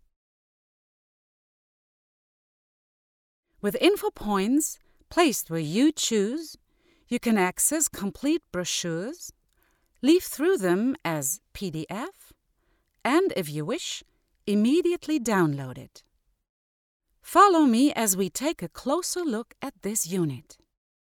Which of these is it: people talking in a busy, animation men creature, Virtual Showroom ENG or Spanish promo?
Virtual Showroom ENG